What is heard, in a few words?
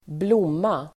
"blomma", Swedish